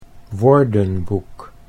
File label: "woordenboek", Dutch